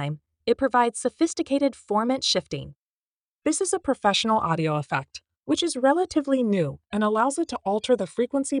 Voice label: Voice Modeler woman